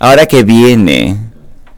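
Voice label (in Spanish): "ahora", Spanish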